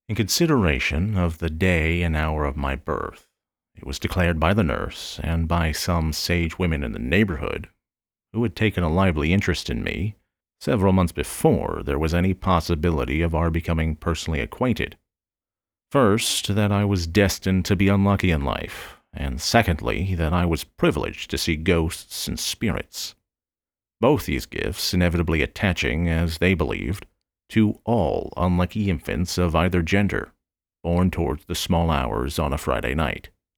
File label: Fiction, voiceover, Audiobook